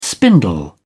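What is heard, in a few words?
"spindle", British English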